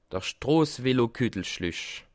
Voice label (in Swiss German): "strossevelo", alsace